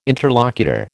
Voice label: "interlocutor", American